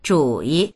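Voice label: "zhu3yi", Mandarin Chinese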